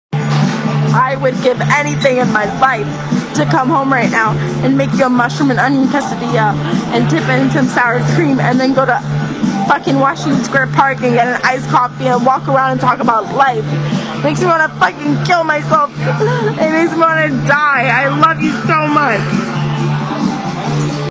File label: Audio Message